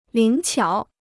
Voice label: "灵巧", Chinese